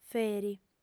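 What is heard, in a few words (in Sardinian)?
"feri", female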